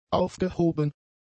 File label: "aufgehoben", German; adjectives